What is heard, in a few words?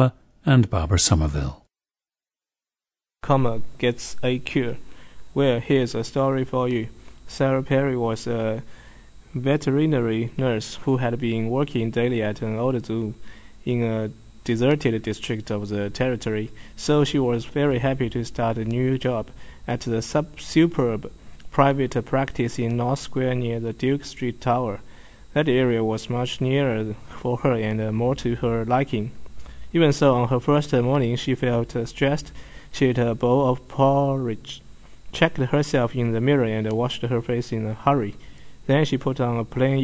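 jiangsu, dialects of English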